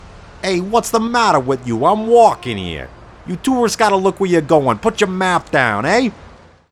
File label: New York Accent